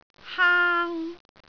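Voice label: "haang1", Cantonese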